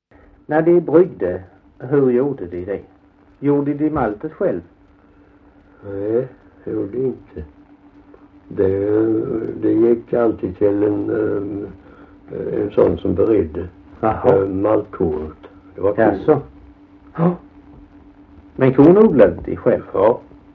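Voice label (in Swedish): Alfshög Halland, dialekter; Folkminnen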